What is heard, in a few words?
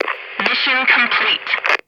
American Female Mission Complete